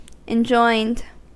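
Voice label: "enjoined", US English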